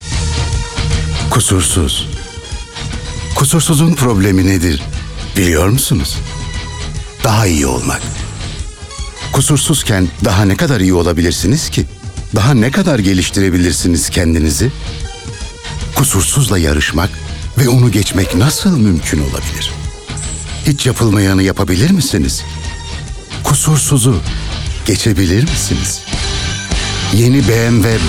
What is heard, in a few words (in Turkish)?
motive, enerjik tempolu